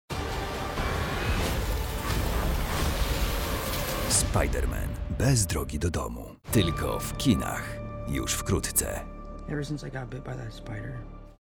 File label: polish movie trailers